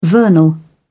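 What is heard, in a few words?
"vernal", British English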